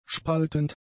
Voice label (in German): "spaltend", german; adjectives